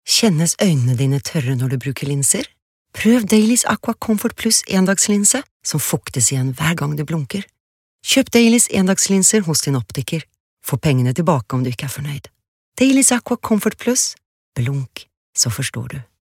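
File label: Norwegian, female, reklam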